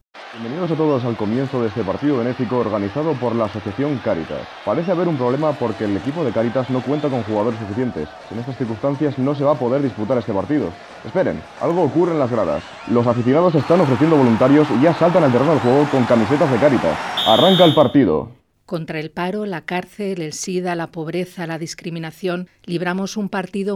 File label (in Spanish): cuña campaña de voluntariado